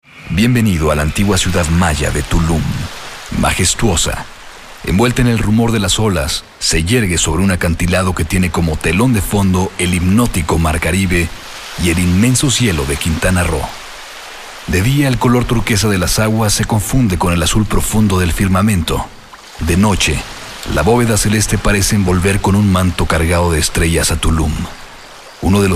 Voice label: Male 40 50 years Medium Low, spanish south america